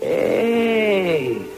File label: "ay", voice